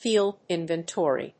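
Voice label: "field inventory", English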